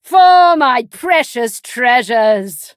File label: levelup, en; cookie run